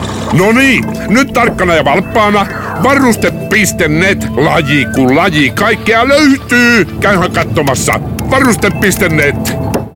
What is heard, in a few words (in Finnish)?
Radio; Varuste Net